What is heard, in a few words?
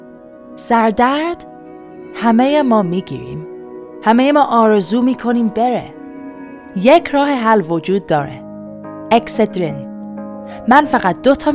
Farsi